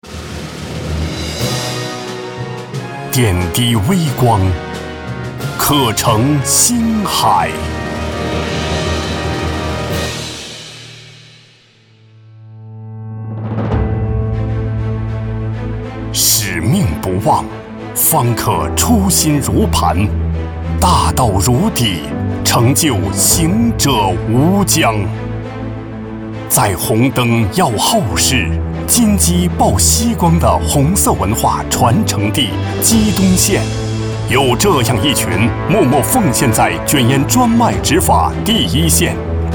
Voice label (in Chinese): 男 企业; 鸡东烟草